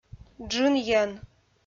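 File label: "джынйӧн", Komi, female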